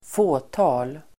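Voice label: "fåtal", Swedish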